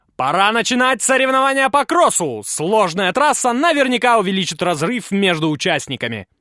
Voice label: narr